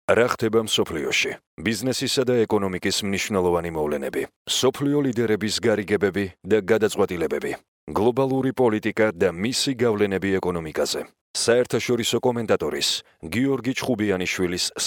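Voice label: Georgian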